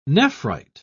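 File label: "nephrite", British English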